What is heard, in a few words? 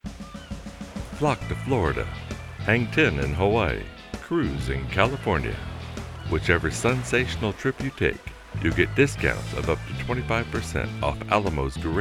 Commercial